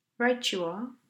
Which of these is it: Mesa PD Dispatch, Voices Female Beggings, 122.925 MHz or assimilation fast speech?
assimilation fast speech